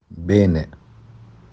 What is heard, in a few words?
"Gut", "bene"